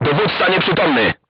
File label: voice message commander contused, crew tanks polish